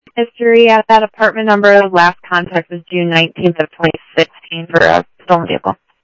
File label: Tempe Police, scanner